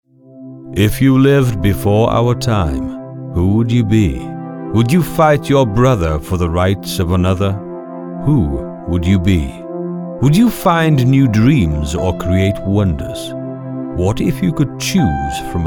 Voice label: Deep British voice

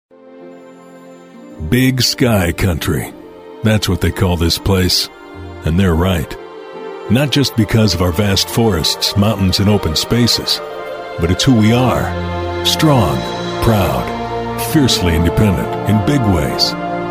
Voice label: Political Ads

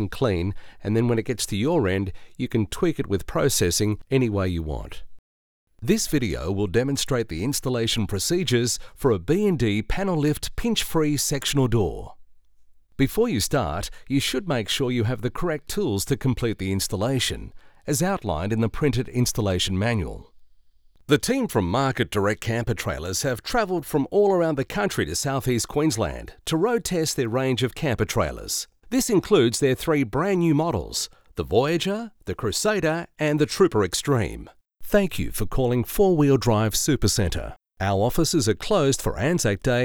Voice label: Studio

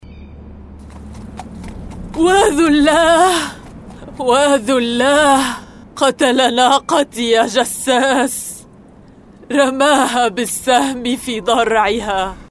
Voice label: senior age character

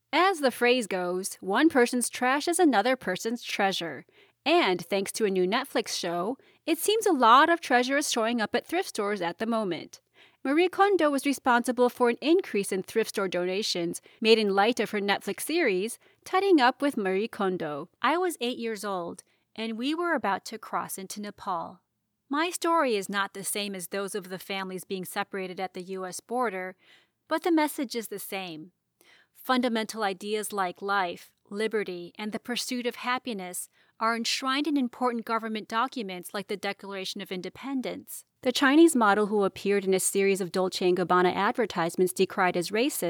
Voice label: news clips

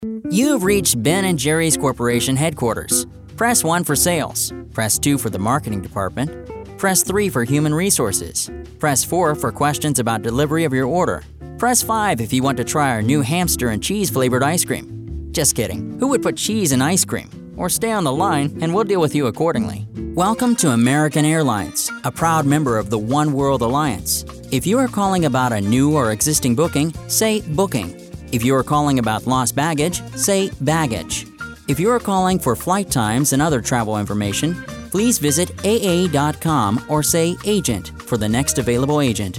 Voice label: TELEPHONY

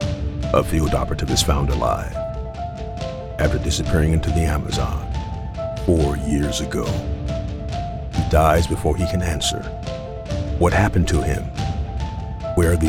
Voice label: Movie Trailer With Music mono

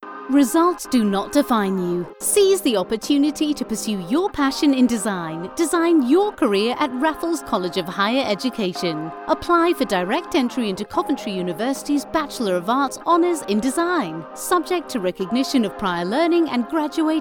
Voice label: Recent commercials

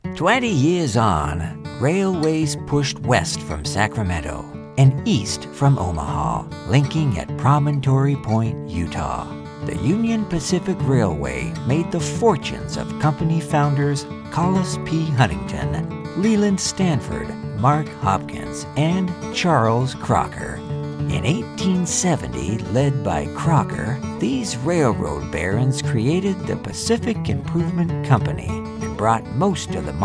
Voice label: Documentary piece no slate

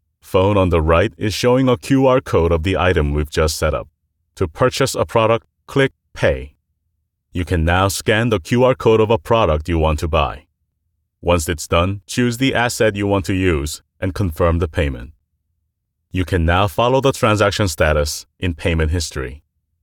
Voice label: American Tutorial

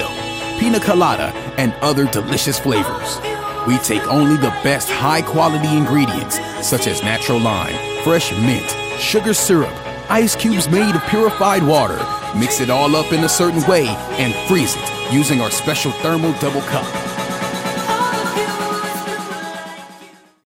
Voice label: mojitos ad

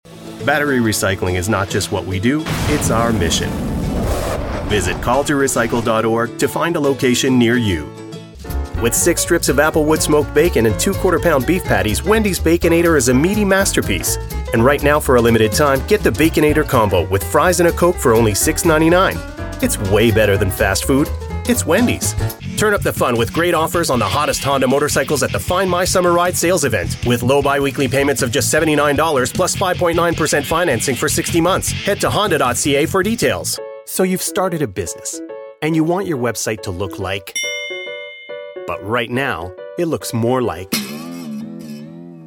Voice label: Commercial English

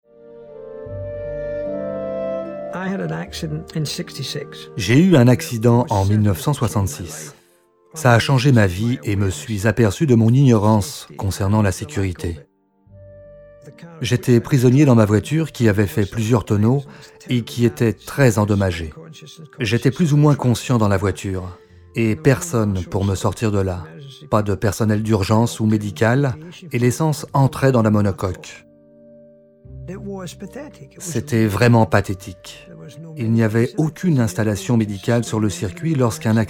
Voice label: voiceover dubbing documentary; jackie stewart

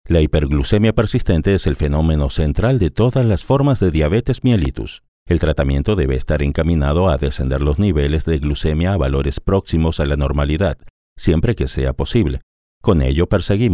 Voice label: Medical narrations

